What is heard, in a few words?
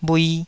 "bhui2", taiwanese Syllables